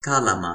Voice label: "kalama", Toki Pona; lipu linku